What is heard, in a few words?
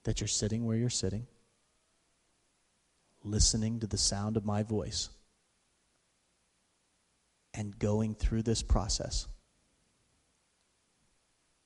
Rapid Induction, Inducing Trance; NLP & Leadership Master Practitioner Training